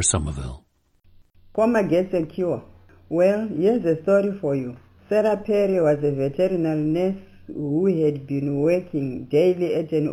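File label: zimbabwe, International Dialects of English Archive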